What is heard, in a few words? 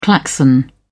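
"klaxon", British English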